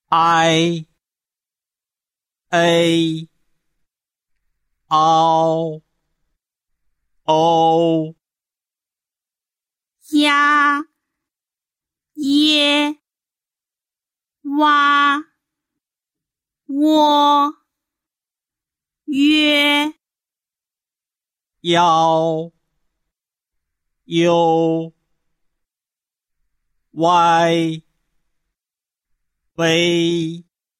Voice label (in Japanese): pinyin fukuboin